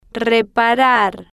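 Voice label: "reparar" Spanish, female, slow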